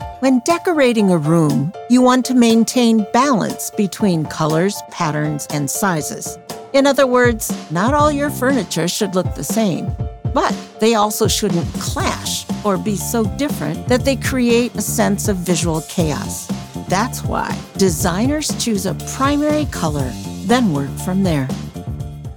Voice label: narration design; Vibrant Voices